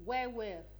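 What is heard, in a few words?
"well well", Nigerian Pidgin, female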